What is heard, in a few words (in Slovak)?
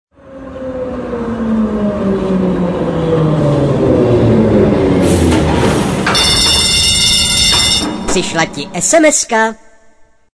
sms hlásky cz